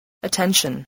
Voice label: "attention", english

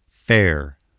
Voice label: "fare", voice

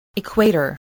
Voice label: "equator", voice, english

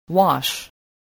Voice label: "wash", spelling

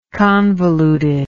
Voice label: "convolutedness", English

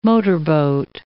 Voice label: "motorboat", English

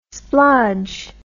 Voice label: "splodge", english

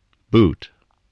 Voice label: "boot", spelling

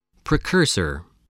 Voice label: "precursor", voice, spelling

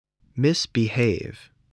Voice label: "misbehave", voice, spelling

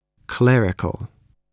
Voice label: "clerical", voice

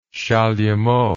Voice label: "chalumeau", English

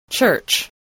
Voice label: "church", English, voice

